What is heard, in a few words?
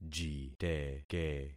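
"jiteke", concatenated syllables; Affixing experiment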